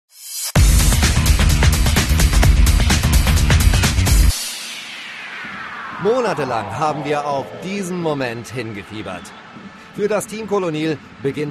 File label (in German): Sprecher Werbung; Schuhputz EM